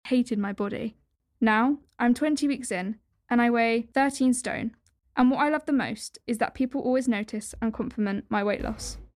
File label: Child talking; Child Obesity